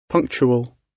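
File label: "punctual", British English; Time, history; Thời gian, lịch sử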